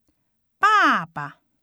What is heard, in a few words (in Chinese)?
"爸爸", Chinese